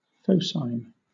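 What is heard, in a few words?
"phocine", British English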